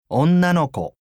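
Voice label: "onnanoko", Japanese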